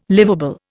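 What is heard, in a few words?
"livable", Indian English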